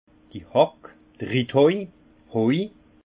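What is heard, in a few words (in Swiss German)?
"hack", Haut Rhin; vin; pioche qui chevauche le piquet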